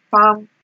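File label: "pam", Nigerian Pidgin, female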